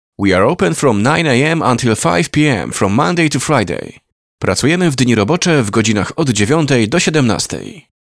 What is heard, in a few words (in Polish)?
demo zapowiedzi english